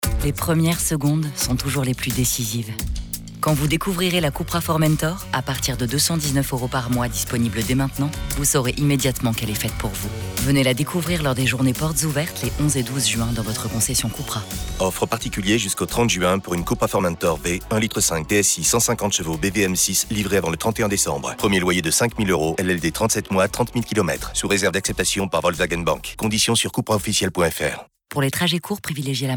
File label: RADIO; SEAT CUPRA FORMENTOR